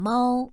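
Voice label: "mao1", Chinese, female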